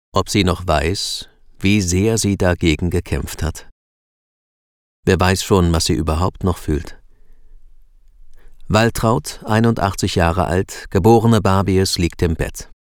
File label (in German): erzaehler demenz